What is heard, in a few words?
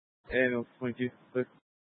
Grand Junction Fire Department Dispatch